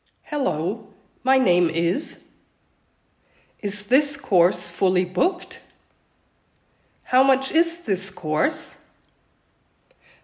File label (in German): Englisch alle Saetze aus Uebersetzungen